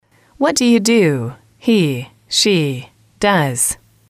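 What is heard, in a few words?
what do you do he she does, female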